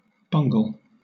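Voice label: "bungle", British English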